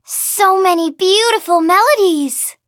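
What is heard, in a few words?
levelup, English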